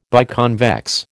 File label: "biconvex", American